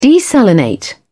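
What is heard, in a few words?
"desalinate", British English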